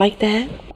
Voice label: female